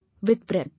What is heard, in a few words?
"With", English